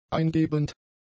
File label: "eingebend", German; adjectives